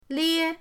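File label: "lie1", Chinese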